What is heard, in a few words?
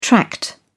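"tract", British English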